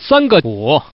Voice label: Man, tuple